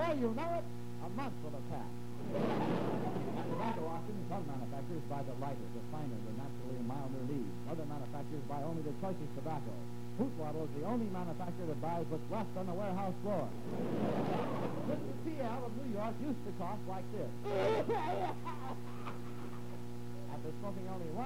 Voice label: Cigarette Commercial; Shows the dangers of smoking in a hilarious way!